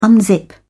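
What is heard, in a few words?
"unzip", English, British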